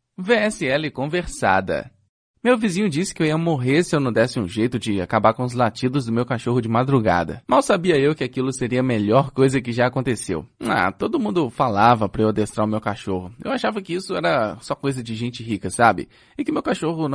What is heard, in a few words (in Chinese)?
葡萄牙语男声